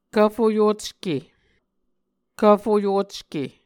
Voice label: "kvfoyocke", Muscogee